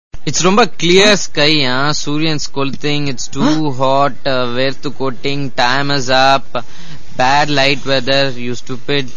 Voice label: english funny